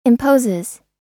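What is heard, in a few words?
"imposes", US English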